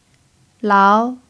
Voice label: "lao2", chinese